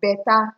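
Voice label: "better", Nigerian Pidgin, female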